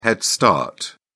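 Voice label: "head start", British English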